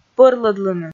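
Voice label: "пӧрлӧдлыны", Komi, female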